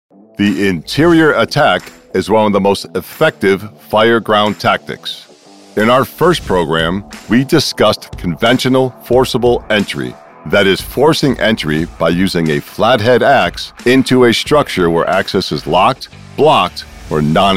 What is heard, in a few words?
NARRATION